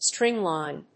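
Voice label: "string line", English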